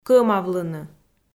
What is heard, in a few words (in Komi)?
"кӧмавлыны" Komi